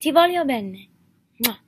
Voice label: Talks Italian Italian Ringtone